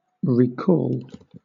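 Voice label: "recall", British English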